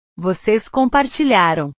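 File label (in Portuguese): "vocês compartilharam", Portuguese; portugues/verbos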